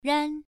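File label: "ran", tone 1, pronunciation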